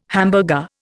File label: "hamburger", Indian